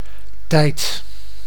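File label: "tijd", Dutch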